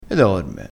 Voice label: "e", vocabolario dialettale

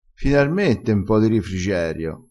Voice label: "rifrigerio", crespinese

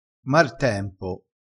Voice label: "martempo", crespinese

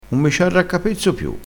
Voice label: "arraccapezzassi", crespinese

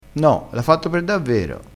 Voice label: "apposta", crespinese

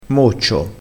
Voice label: "moccio", crespinese

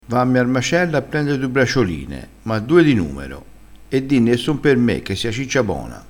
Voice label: "macello", crespinese

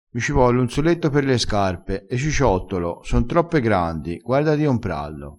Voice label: "soletto", crespinese

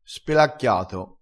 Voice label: "spelacchiato", vocabolario dialettale crespinese

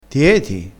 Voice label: "chetassi", crespinese

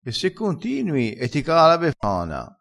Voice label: "e ti caa", vocabolario dialettale

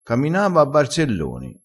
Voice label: "barzelloni", crespinese

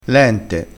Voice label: "lente", crespinese

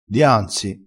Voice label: "dianzi", vocabolario dialettale

